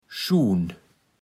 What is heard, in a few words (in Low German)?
"Schuhn", platt